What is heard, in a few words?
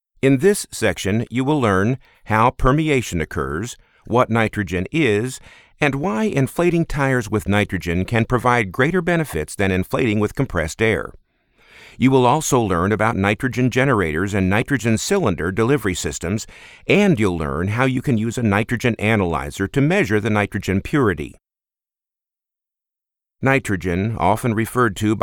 eLearning Industrial